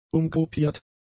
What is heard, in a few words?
"umgruppiert", German; adjectives